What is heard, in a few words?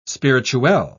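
"spirituelle", British English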